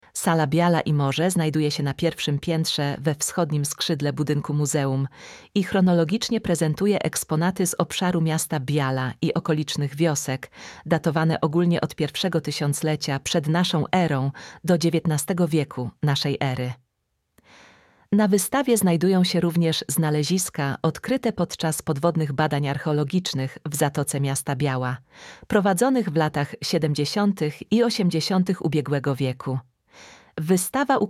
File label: Museum Polish; audioprzewodnik po muzeum